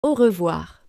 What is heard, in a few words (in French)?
"Au revoir", French